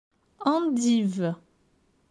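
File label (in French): "Endivee", French; vocabulaire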